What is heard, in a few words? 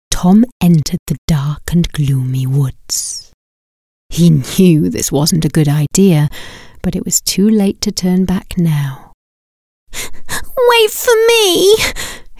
VOICE ARTIST audio book